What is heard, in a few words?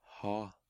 "Å ha", Norwegian